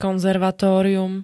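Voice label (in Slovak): "konzervatórium", Slovak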